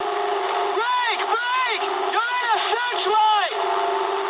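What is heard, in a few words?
English searchlite mood high; speech; War Thunder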